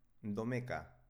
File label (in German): "domeka", baskische